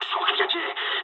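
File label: bomb success mood med, speech japanese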